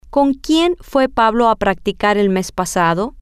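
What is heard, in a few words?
with whom did Pablo go to practice last month, Spanish, female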